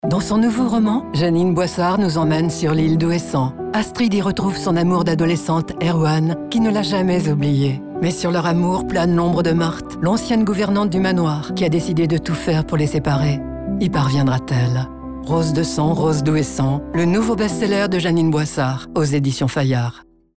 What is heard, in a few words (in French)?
Pub radio; Editions FAYARD; Rose de Sang; Rose d'Ouessant